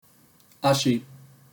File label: "ashi" Japanese